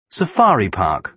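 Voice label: "safari park", British English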